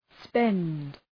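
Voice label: "spend", English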